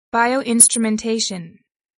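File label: "bioinstrumentation", English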